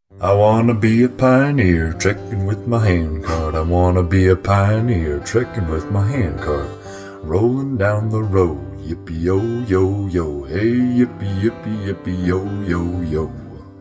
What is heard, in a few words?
Commercial; Hot Rod HandCart